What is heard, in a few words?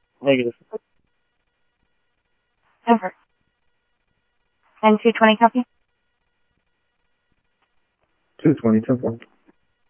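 Sheriff West County, scanner audio trunk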